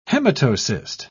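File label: "hematocyst", British English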